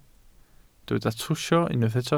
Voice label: "trwsio", Welsh

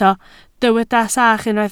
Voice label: "sach", Welsh

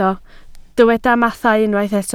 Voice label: "mathau", Welsh

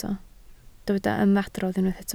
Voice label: "ymadrodd", Welsh

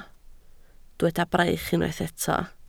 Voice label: "braich", Welsh

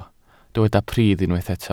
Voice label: "pridd" Welsh

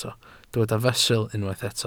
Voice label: "fesul", Welsh

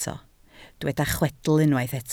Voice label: "chwedl" Welsh

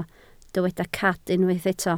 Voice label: "cad", Welsh

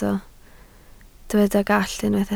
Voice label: "gallt", Welsh

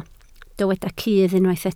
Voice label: "cudd", Welsh

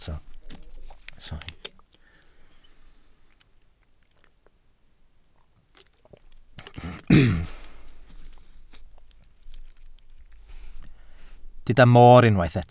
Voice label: "moor", Welsh

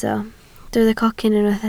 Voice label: "cocyn", Welsh

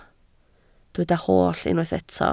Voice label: "holl", Welsh

